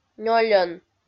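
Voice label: "нёльӧн", Komi, female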